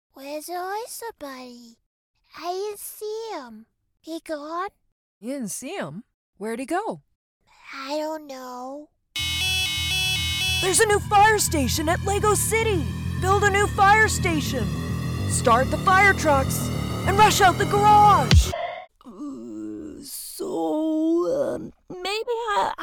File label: Boy Voice